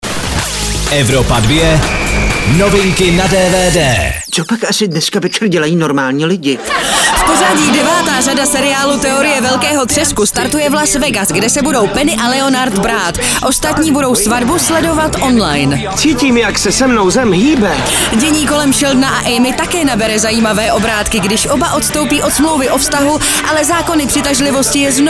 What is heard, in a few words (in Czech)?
dvd news; evropa2; teorie velkeho tresku 9 serie